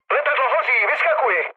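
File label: on fire, Czech, mood low; speech; War Thunder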